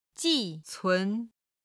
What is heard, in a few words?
"ji4cun2", Chinese